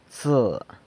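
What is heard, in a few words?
"tse", San Lucas Quiaviní Zapotec; ten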